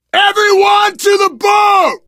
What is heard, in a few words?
arrive boat, survivor voice